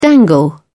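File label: "dangle", British English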